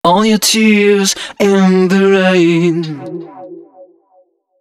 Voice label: male d# 130, short hooks, Dance Voice